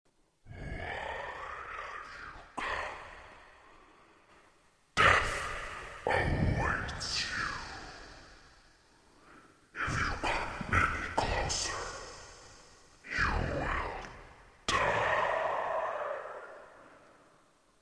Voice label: The Voice Of Doom